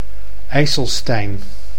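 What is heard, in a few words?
"IJsselstein", Dutch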